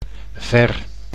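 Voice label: "ver", Dutch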